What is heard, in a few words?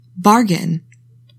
"BARGAIN", english; 3000 words